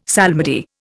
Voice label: "psalmody", Indian; Dictionary

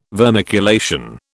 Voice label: "vermiculation", British

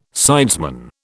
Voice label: "sidesman", British

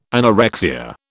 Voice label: "anorexia", British

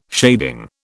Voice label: "shading", British

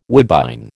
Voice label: "woodbine", American

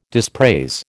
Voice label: "dispraise", American

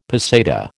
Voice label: "peseta", American

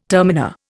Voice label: "terminer", Indian

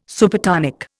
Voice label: "Supertonic", Indian

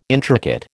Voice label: "intricate", American

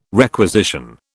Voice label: "requisition", British

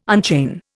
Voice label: "unchain", Indian

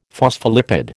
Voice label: "phospholipid", American

